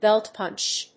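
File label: "belt punch", English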